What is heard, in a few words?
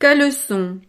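"calecon", French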